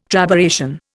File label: "trabeation", Indian